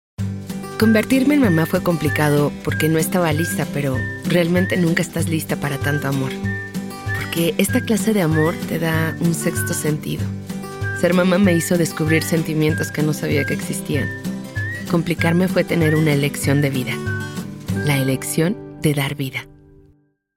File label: young maternal mama joven